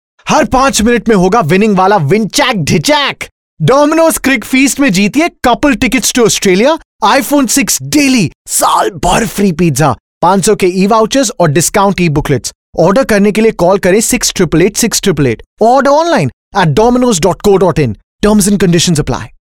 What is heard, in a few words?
Hindi, male voice